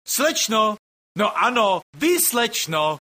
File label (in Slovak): sms hlásky cz